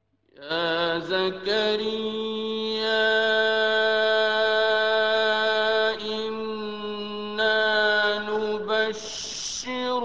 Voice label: hafs, Qiraat; Quran